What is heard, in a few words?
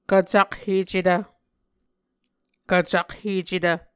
"kvcakhecetv", Muscogee; Mvskoke Words with Voicing Consonants; A Dictionary of Creek/Muskogee; SIL International; Onvkv Ohhvyayices